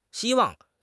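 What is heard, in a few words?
"xi1 wang4", Mandarin Chinese; HSK2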